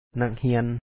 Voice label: "ນັກເຮີຍນ", Lao; na#k-hi2An